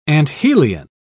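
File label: "anthelion", British English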